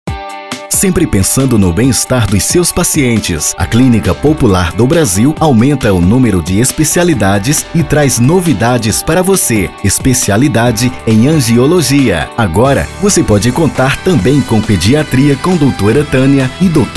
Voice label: VOZ IMPACTO GRAVE vinheta spot chamada comercial propaganda anuncio; para clinica

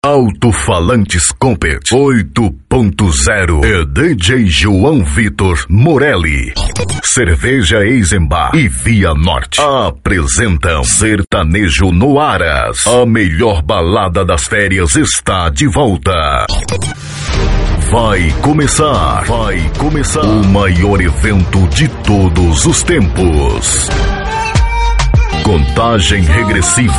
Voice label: banco de voz, voz de impacto grave locutor, rodeio vinhetas

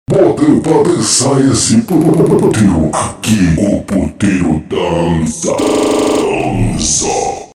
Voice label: teste voz vinheta

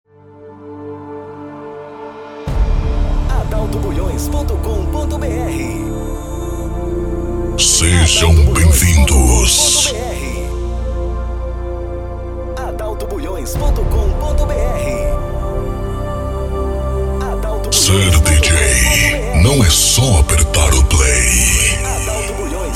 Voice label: banco de vozes banco de voz locutor vinheta banco de voz

